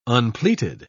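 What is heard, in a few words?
"unpleated", British English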